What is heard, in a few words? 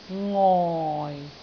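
"ngoi4", Cantonese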